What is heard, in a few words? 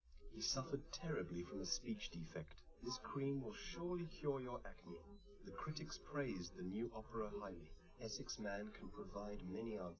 male live rec; SiSEC10; underdetermined